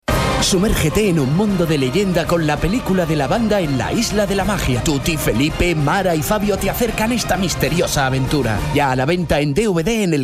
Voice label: spanish m commercial; voice demos